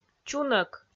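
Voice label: "чунӧк", Komi, female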